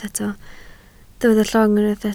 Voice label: "llong", Welsh

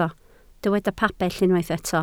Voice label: "pabell", Welsh

